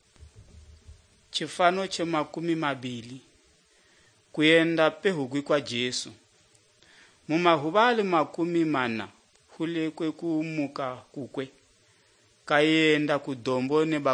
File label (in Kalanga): good news kalanga lilima, drama